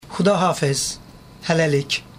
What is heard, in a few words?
azerbaijan bye bye